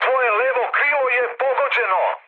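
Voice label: Serbian left wing mood med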